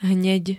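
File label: "hneď", Slovak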